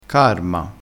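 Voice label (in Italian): "carma", dialetto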